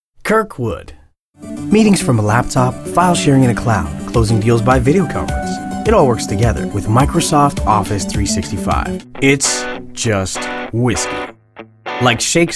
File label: Commercial Voice